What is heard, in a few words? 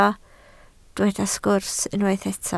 "sgwrs", Welsh